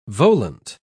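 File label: "volant", British English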